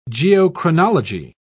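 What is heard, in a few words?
"geochronology", British English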